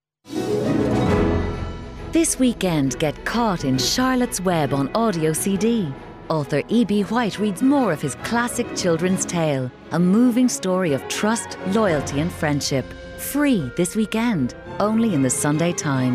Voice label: coms

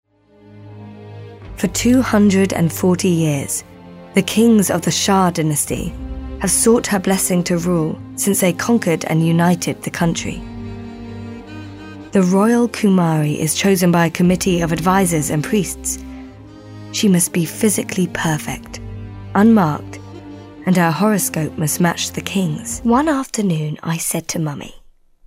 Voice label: narr

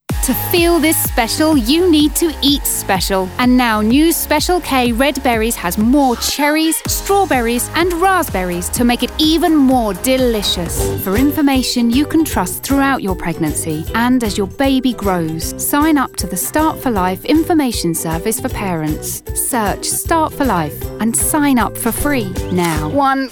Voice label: commercial

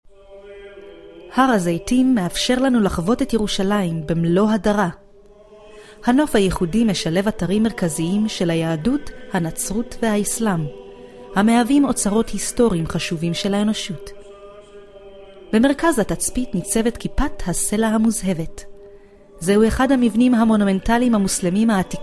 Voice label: hebrew narr character

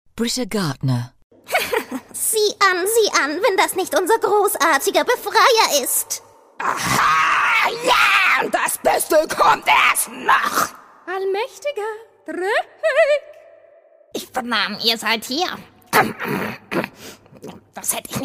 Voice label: german character

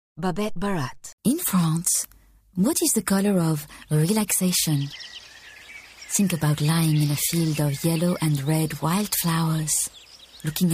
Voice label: eng coms

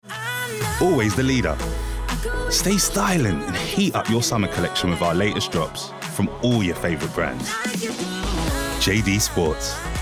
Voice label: commercials montage english

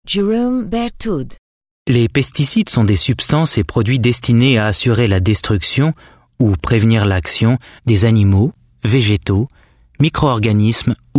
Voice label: french eng narr

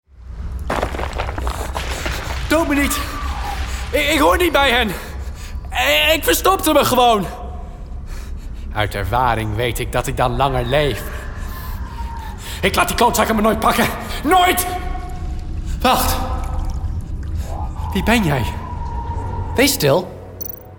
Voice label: game dutch

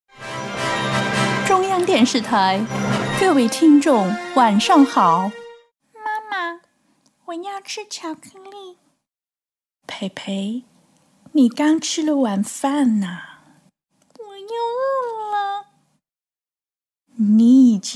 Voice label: chinese character

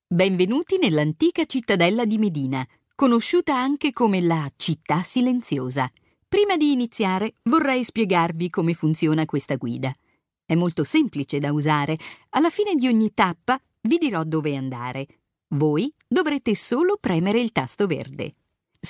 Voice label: italian narration

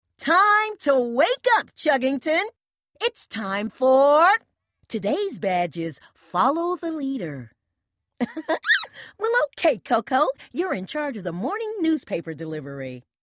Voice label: cartoon

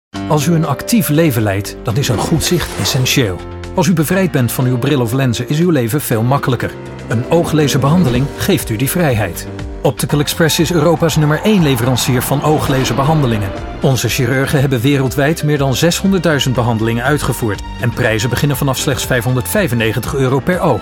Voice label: dutch eng compilation

